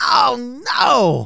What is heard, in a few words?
"BUMMER", Speech, Soul Man